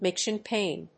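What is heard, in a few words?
"miction pain", English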